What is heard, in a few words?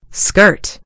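"skirt", American English; gcompris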